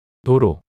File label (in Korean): "도로" 단어 남자